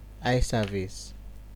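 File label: "eyeservice", Nigerian Pidgin, male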